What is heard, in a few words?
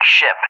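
"ship" English, speech; DCS; Allied Flight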